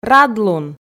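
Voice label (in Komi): "радлун", Komi, female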